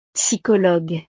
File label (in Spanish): "psychologue", frances; profesiones